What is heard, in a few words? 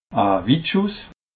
"witschuss", alsace